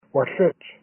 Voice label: "Wellich", Kumeyaay; Bad; Ugly Bad